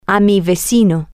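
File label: neighbor, Spanish, female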